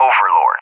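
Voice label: "Overlord", English, USA; CallSign; DCS; Allied Flight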